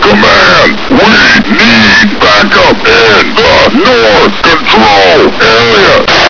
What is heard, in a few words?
backup north, military; svencoop; echoes